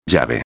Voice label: "llave", espanhol; palavra do dia

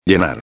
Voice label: "llenar", espanhol; palavra do dia

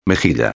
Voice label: "mejilla", espanhol; palavra do dia

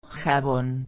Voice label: "jabon", espanhol; palavra do dia

